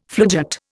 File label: "floodgate", Indian